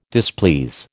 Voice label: "displease", American

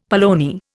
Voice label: "polony", Indian; Dictionary

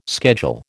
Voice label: "schedule", American

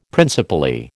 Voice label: "principally", American

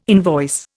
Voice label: "invoice", Indian

